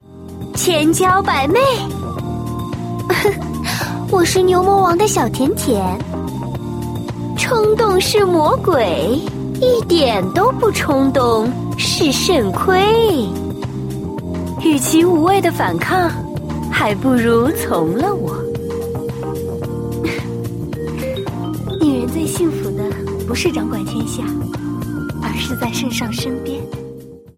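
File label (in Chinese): yujie nüwang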